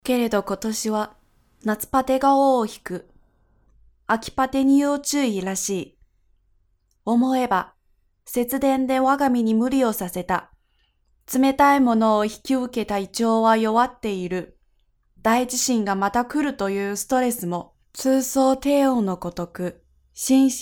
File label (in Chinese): 日语女 叙述样音